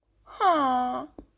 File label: female villager